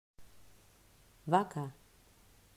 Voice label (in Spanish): "Vaca", pronunciacion; mausschool